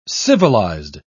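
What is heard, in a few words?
"civilised", British English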